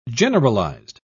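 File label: "generalised", British English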